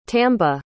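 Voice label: "tambe", English word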